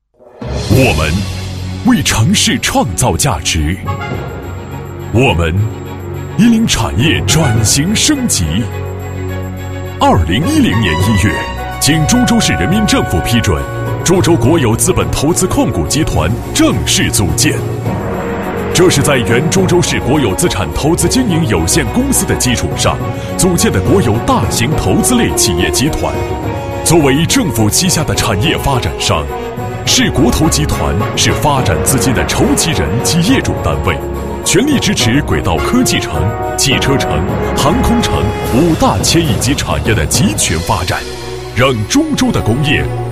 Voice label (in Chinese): 男 企业, 集团宣传片; 株洲国投集团宣传片